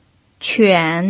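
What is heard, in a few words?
"quan", pinyin, tone 3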